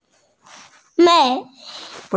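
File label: "nei4", Mandarin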